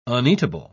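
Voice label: "uneatable", British English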